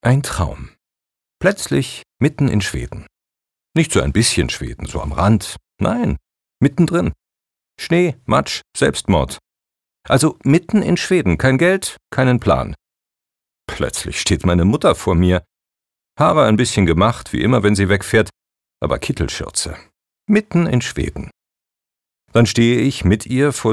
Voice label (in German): Schauspiel Neutral